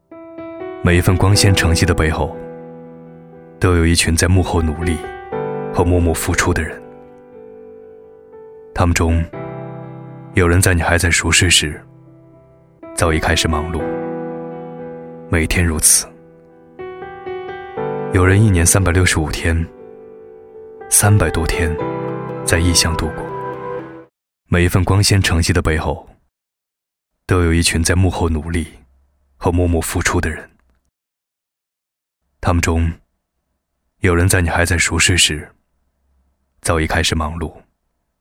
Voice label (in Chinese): 专题自然走心语气